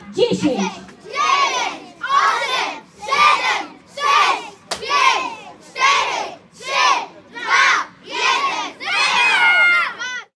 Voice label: Children Countdown Polish